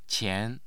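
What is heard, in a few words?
"qian2", Mandarin Chinese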